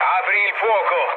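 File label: Italian attack position mood low, speech; War Thunder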